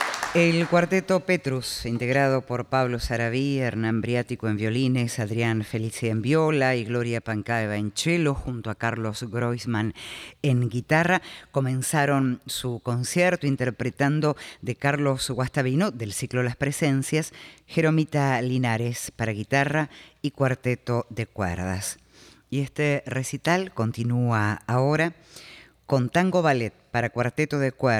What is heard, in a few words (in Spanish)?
locutora